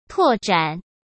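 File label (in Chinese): "拓展", Mandarin Chinese; chinese-flashcards; hsk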